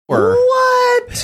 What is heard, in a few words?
drawn out "what"